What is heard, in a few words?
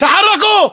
order move forward, battle chatter, english